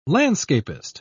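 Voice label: "landscapist", British English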